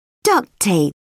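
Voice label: "duct tape", British English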